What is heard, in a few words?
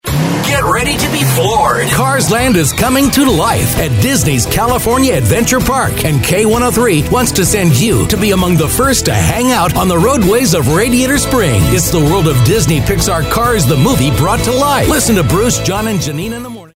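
imaging, voice-over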